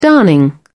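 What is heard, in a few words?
"darning", English, British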